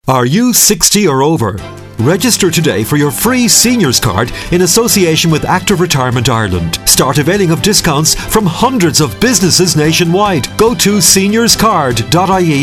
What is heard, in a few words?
Radio advert; Seniors Card